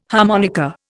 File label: "harmonica", Indian